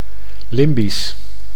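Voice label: "limbisch", Dutch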